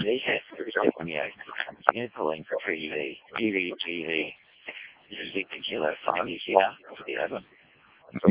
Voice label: decoded speech, parrot